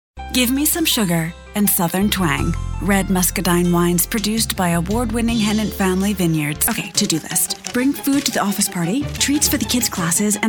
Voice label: Commercial; Commercial Demo